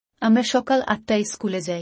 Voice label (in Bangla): We go to school at 8 AM bangla; Time and Routine